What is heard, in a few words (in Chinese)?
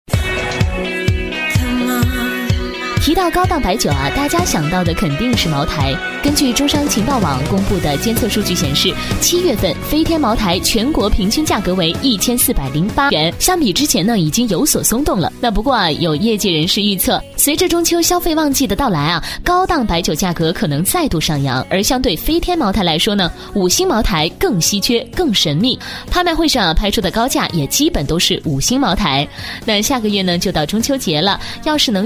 女4号 电台直销, 国语女声配音